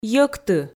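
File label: "йӧкты", Komi, female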